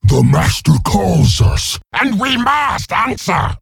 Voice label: Male Ogre Bark; Chogall

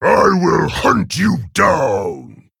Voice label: Male Orc Emote Threaten

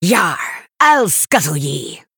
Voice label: Female High Elf Pirate Day

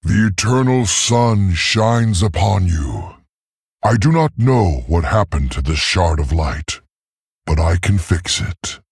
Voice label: Male Tauren

